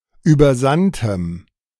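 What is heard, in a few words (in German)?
"übersandtem", German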